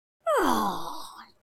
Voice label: sad; speech

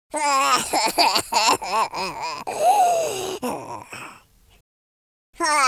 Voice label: sad; Capone

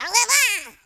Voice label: bye bye, French Lover; speech

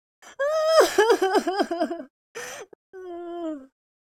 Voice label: sad, Geordie, speech

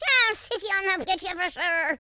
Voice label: angry, Irish; speech

